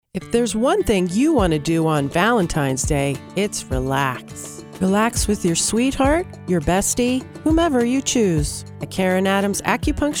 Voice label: foot soak radio